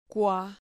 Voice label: "cua", Spanish syllable